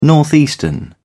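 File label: "northeastern", British English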